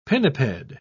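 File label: "pinniped", British English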